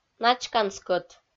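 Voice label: "начкан скӧт", Komi, female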